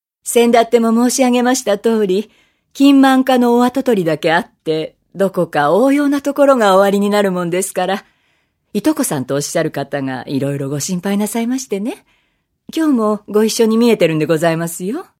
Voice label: female voice